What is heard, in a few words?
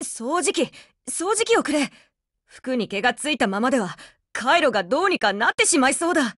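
OPERATION OVER Japanese, Voice; GF Alarm; APC556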